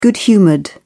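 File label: "good-humoured", British English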